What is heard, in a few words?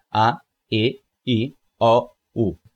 Spanish Vowels Combined